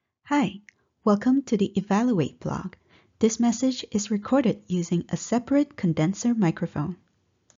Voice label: Condenser Mic